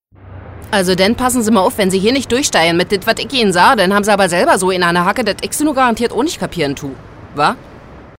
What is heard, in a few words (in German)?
Berlinerisch